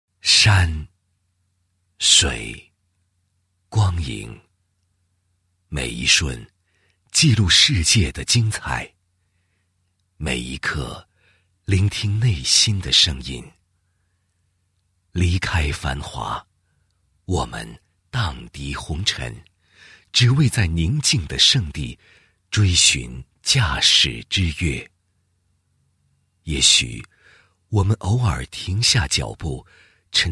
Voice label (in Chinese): 男 汽车广告; 山水光影每一瞬; 宝马